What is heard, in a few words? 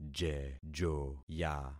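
"jejoya", concatenated syllables; Affixing experiment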